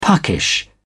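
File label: "puckish", British English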